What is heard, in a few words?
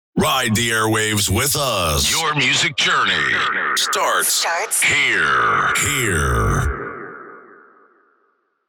Vocals radio voice sweeper ride the airwaves